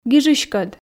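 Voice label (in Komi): "гижыськӧд", Komi, female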